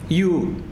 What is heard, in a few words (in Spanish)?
"tierra" Zapoteco; miahuatec